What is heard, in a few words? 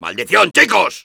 negative vocalization, es; Soldier